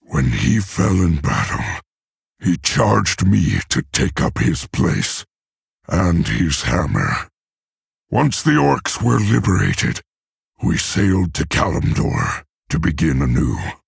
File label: Male Orc; Thrall